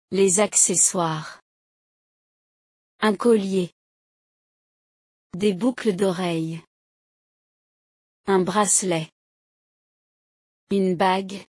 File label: accessories french pronunciation